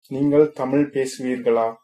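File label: do you speak, tamil, male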